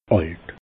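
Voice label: "alt", Alsatian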